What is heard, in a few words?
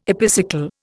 "epicycle", Indian